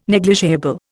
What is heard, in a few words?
"negligible", Indian